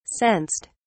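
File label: "sensed", British English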